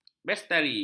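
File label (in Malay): "bestari", Malay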